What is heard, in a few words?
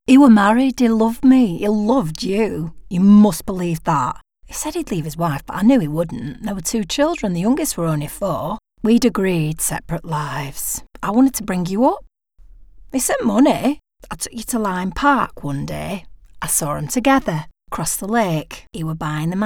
Emotional Manc